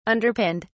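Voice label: "underpinned", English, British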